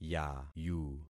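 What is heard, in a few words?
"yayu", concatenated syllables; Affixing experiment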